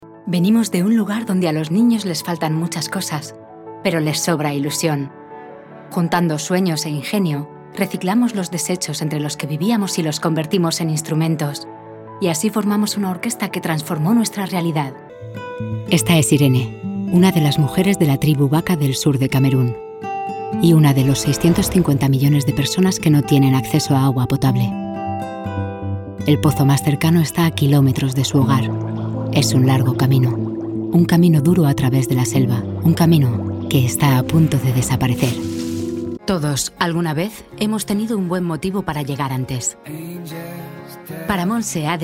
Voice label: corporativo

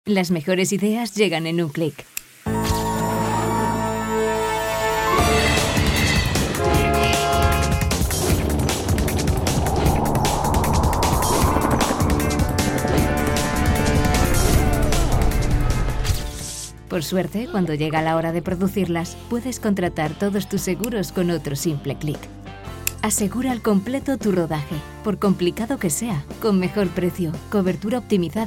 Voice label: female cast corporativo

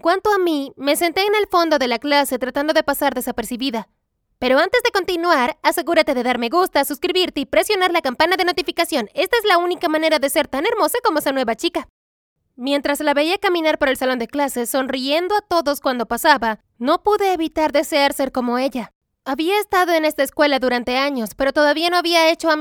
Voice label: VOZ ADOLESCENTE MAYOR FEMENINO

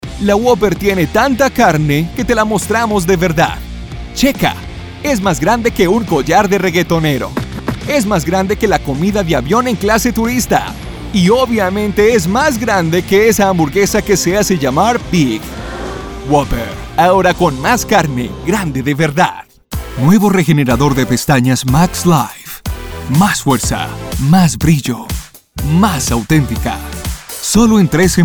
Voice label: Demo Comercial

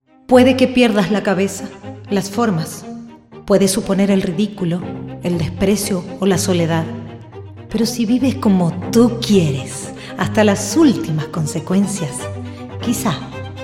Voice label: demo comercial

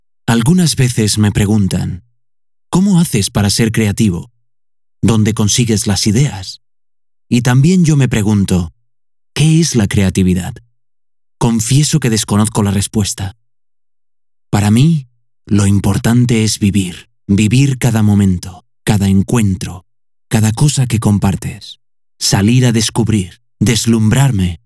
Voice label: ES Voz Off

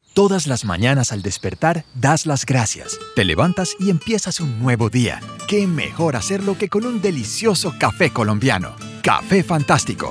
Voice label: Comercial; VOPlanet Voice Talent Directory

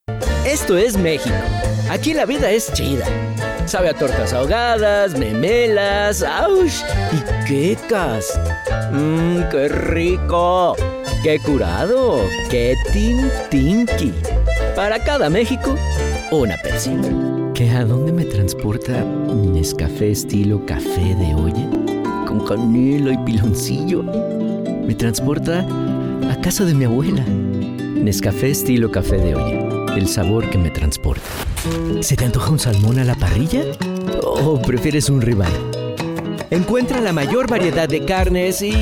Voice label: Comercial

